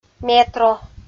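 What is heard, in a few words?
"метро", Komi, female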